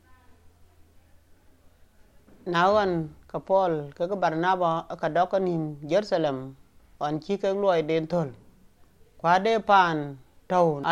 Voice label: Dinka Padong; Paul and Barnabas sent out from Antioch